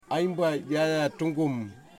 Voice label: my big pig, Wamut

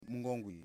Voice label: Posts, yimas

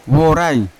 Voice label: "vorai" paddle, Yawa

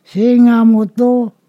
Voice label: "noon", Ponosakan

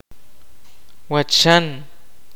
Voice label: "coconut", kaqchikel

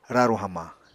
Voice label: "raru hamañ", Apatani

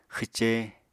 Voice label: "xwce", Apatani

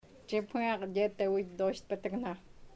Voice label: kingfishers didnt eat fish, chamacoco

